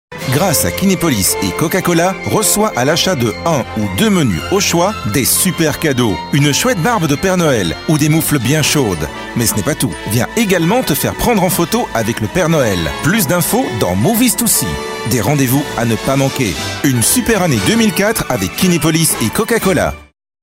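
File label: French, male, commercial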